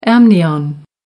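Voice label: "amnion", English, British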